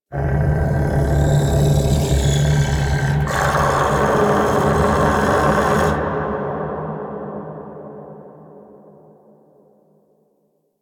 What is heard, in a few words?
Shouts; Entrati; Warframe; Man In The Wall